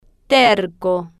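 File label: "terco", slow, spanish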